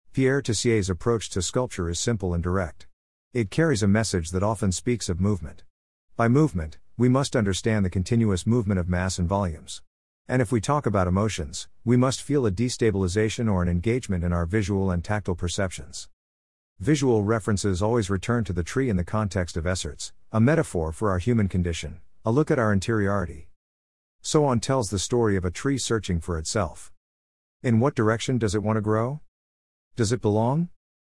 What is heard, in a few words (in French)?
anglais